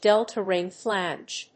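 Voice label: "delta ring flange", English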